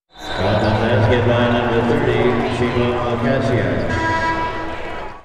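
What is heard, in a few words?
Arena Announcement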